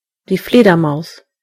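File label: bat, German voices words; gcompris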